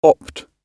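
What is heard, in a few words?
"opt", British English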